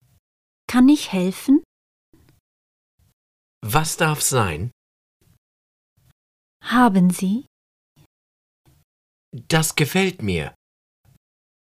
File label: German